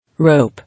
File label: "Rope", English